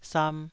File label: "sam2", taiwanese syllable